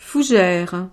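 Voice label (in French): "fougere", French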